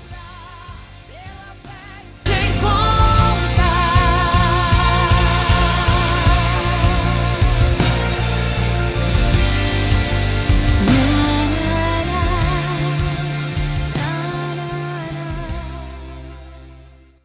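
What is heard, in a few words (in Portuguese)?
Aniversário Evangélico para Pai Masculino, telemensagem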